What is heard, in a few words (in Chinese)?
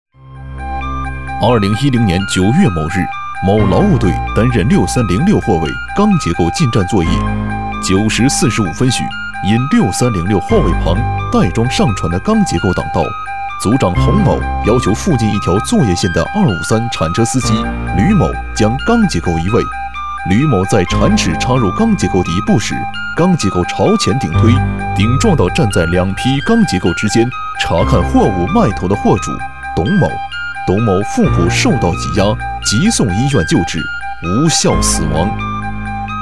男 专题 法治解说 工厂事故解说